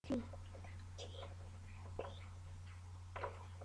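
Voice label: "jig", spoken word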